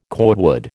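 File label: "cordwood", American English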